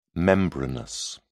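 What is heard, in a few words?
"membranous", British English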